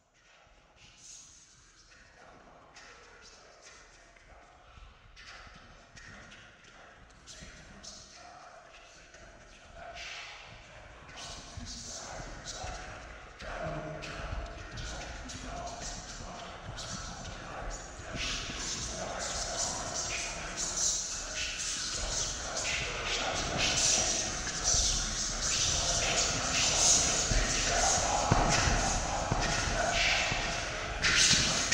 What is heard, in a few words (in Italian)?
sussurri horror audio 8d effetti sonori horror